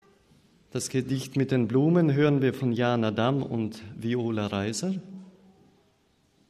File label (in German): Ansage